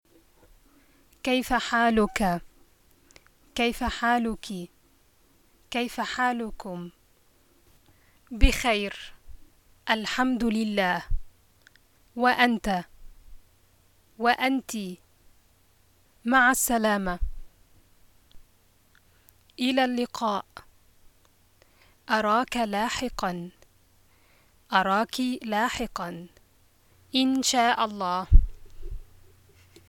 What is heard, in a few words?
msa greetings, arabic dialogues